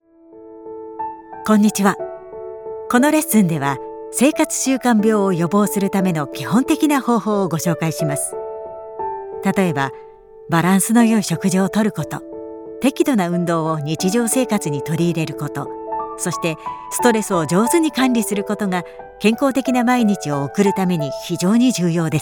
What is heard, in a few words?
E-learning